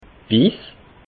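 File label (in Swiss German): "wiss", Alsatian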